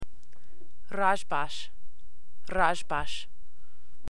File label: Kurdish "hello"